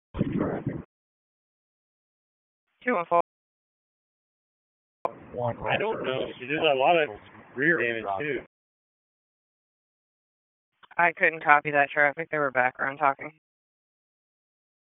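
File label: Police Northeast District Dispatch, Raleigh; wake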